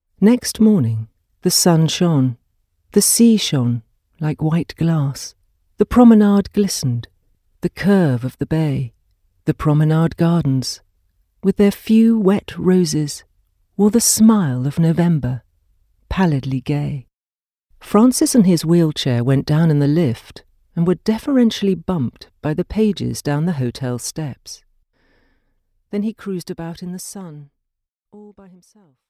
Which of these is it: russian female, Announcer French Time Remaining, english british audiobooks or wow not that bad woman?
english british audiobooks